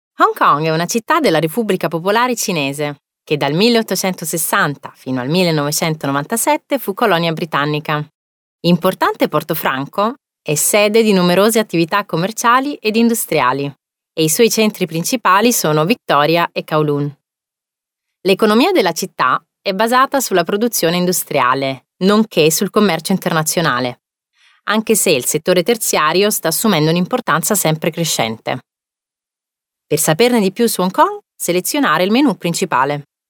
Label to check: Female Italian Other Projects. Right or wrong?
Right